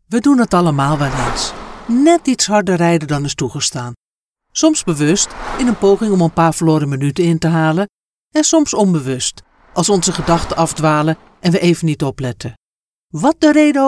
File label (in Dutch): Voorlichtingsvideo voiceover